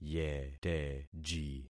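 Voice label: "yeteji", concatenated syllables; Affixing experiment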